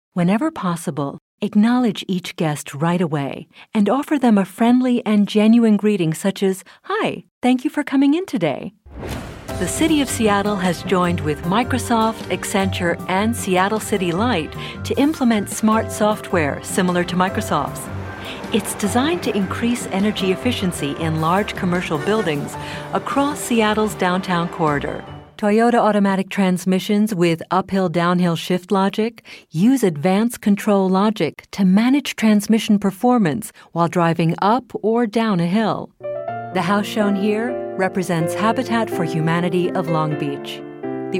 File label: Corporate Narration